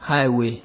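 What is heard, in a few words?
"highway", Nigerian Pidgin, male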